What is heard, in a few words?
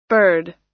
"bird" US